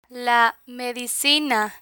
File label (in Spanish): "la medicina", Spanish; medical medicine vocabulary; learn spanish